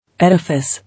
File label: "Edifice", English